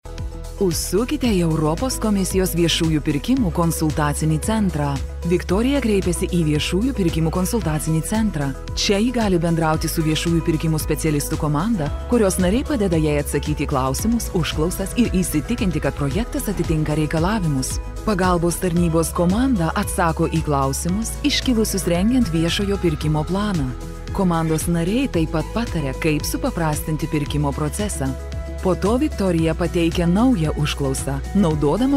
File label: female, Lithuanian explainer video